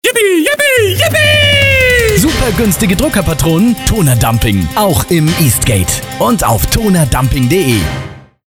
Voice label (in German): spot; tonerdumping marzahn